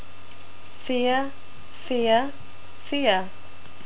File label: "fear", NZ English